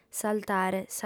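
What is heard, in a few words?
"s'altare", female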